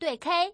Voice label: pair, female

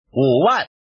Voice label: man

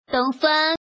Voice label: woman

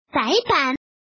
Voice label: woman

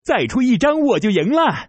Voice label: left, male

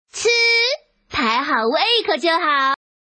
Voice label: woman chi

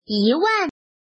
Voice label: woman

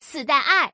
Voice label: Woman; Woman sidaier

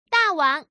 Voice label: Woman

